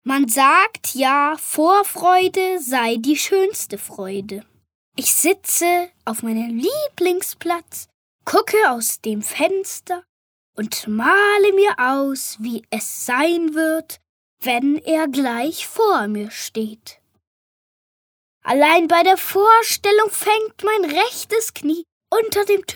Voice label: Kleine Freuden Frech

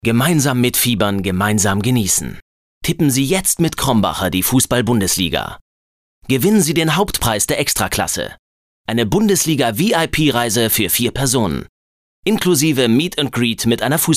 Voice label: Fremdtake Dynamisch

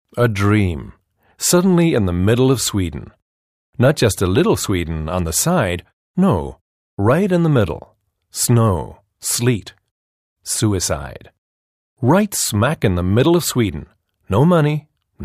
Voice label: Schauspiel Neutral; EngUS

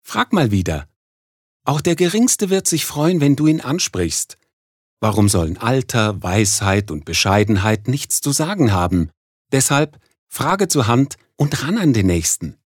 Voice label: Werbung Sanft

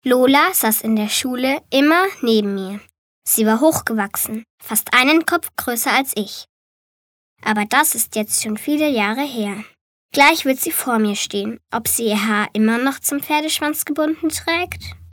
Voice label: Schultage Neutral

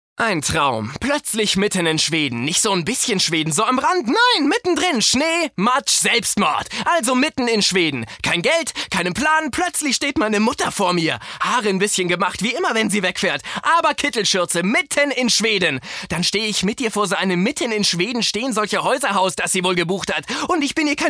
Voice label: Schauspiel Energisch

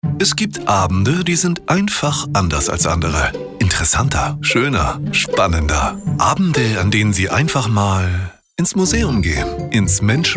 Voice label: Sanft; Fremdtake

